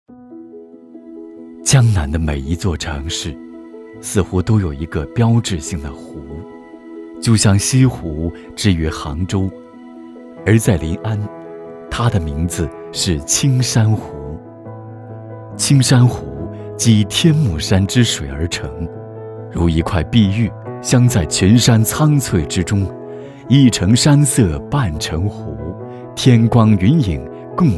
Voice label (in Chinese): 男 宣传; 临安区青山湖